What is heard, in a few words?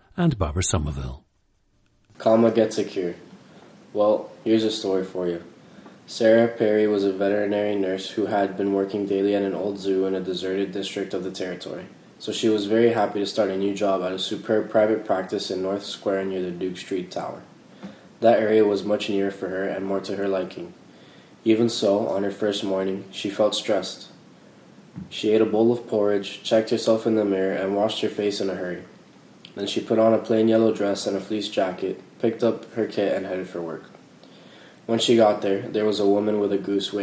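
florida, International Dialects of English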